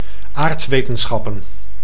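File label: "aardwetenschappen", Dutch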